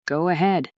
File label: fem